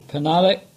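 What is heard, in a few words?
"Penhallick" English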